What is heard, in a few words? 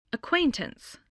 "acquaintance", English voice